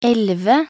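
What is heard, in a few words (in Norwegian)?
"elleve", Norwegian; talkbook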